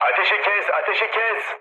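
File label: Turkish player friendly fire, mood low, speech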